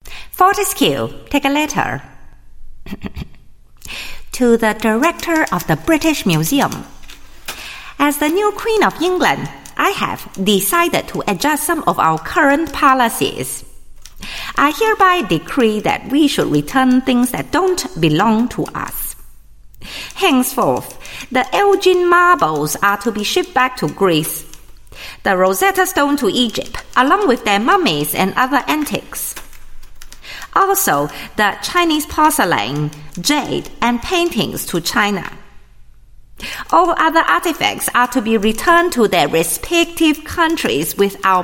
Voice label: Asian English Radio